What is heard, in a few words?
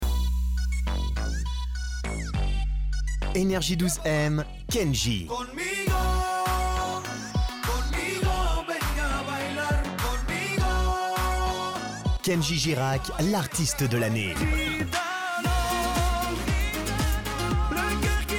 french switzerland movie trailers